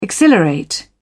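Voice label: "exhilarate", British English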